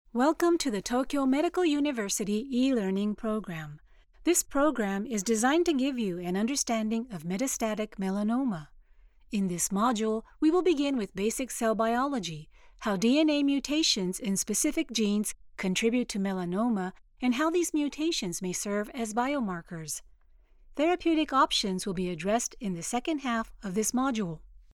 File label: foreigner